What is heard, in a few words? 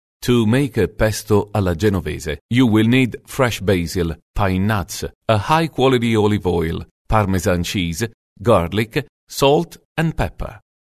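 Italian English, male, pesto, corporate, accent of italy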